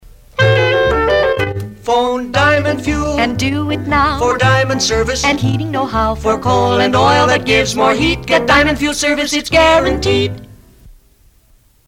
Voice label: Diamond Fuel Ad